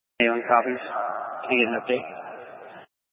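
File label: Fire Dispatch, scanner audio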